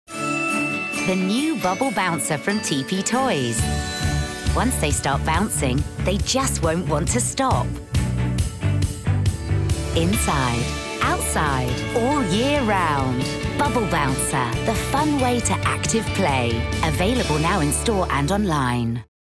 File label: female english tv commercial, english southern accents; bubble bouncer tp toys